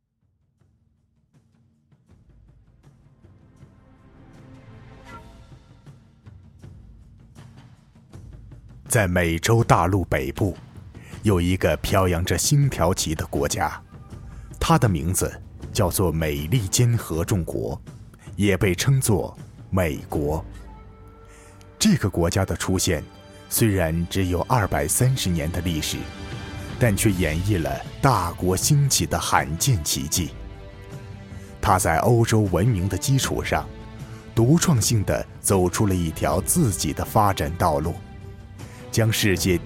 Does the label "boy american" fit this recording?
Yes